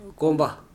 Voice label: "cock", Korku